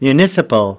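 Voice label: "municipal", American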